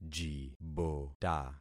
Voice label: "jibota", concat syllables; Affixing experiment

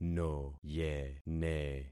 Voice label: "noyene", concat syllables; Affixing experiment

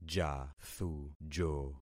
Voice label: "jathujo", concat syllables; Affixing experiment

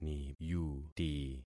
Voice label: "niyuti", concat syllables; Affixing experiment

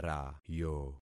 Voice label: "rayo", concat syllables; Affixing experiment

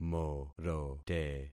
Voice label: "morote", concat syllables; Affixing experiment

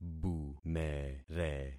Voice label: "bumere", concat syllables; Affixing experiment

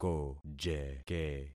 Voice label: "kojeke", concat syllables; Affixing experiment

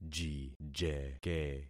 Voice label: "jijeke", concat syllables; Affixing experiment

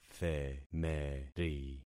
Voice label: "themeri", concat syllables; Affixing experiment

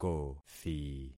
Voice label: "kothi", concat syllables; Affixing experiment

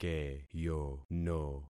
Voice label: "keyono", concat syllables; Affixing experiment

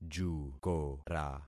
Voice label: "jukora", concat syllables; Affixing experiment

